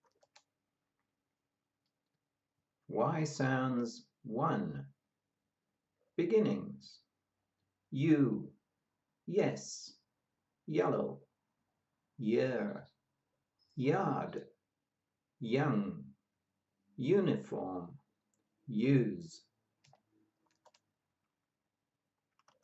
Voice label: Y sounds beginnings, pronunciation of australian english